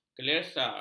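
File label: "kelesa", Malay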